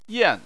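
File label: "yan", Chinese, fourth tone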